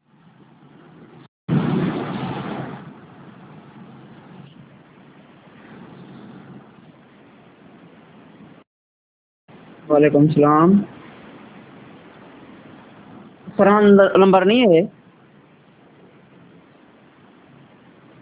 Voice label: Call Recordings; Private Number; outgoing